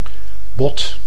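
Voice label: "bot", Dutch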